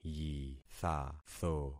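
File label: "yithatho", concatenated syllables; Affixing experiment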